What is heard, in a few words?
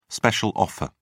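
"special offer", British English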